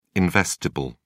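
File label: "investible", British English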